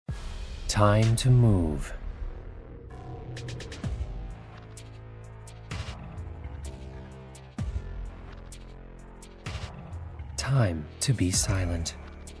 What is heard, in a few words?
Eng Video; DOXA